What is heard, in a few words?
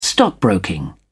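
"stockbroking", English, British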